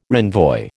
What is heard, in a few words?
"renvoi", American